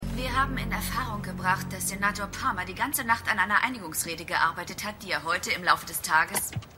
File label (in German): Nachrichtensprecherin